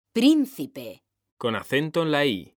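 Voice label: "príncipe", Spanish, female; mekanta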